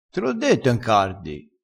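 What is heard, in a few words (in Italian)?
"en", vocabolario dialettale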